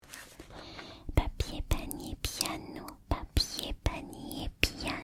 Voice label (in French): Virelangue